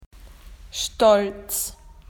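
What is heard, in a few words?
"proud", Australian English; PictureDictionary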